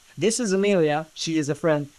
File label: Voice Acting, es, M